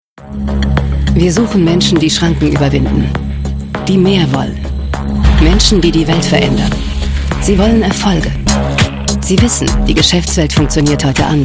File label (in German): Imagefilm; Netapp